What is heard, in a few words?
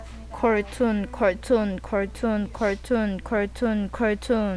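"cartoon", spoken word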